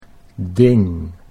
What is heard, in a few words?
"ding", Dutch